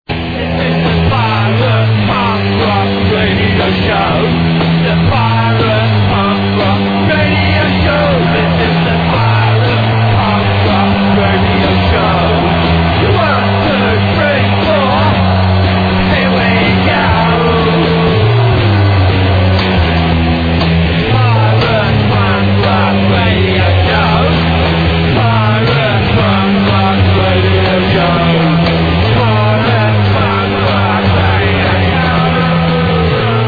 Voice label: Pirate Punk Rock radio show